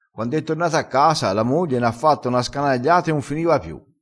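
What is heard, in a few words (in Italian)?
"scanagliata", dialetto crespinese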